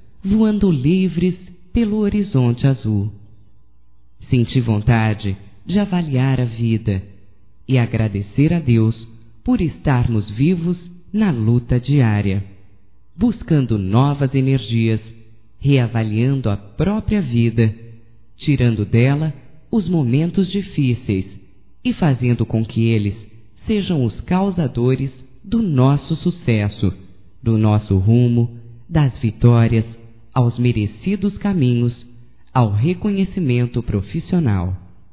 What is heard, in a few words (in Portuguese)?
AMIZADE, fem; Amizades; Telemensagens 2017